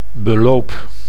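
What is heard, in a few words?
"beloop", Dutch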